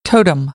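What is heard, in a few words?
"totem", American, English; AmericanBritish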